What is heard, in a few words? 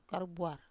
"karubuar", Wamesa